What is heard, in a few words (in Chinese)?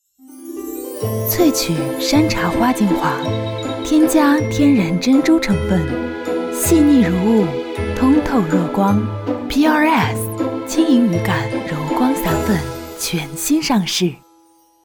明媚 广告 柔光散粉